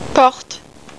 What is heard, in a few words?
door une porte; french; Furniture